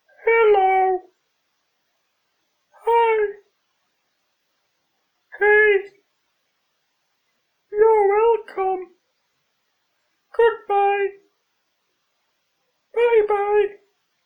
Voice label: Cartoon Voice Common Greetings Your Welcome Good Bye; Bean Set 1